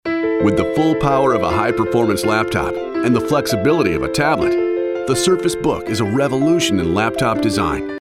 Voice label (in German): Eng US Dynamisch; Fremdtake